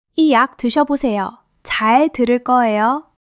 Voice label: joy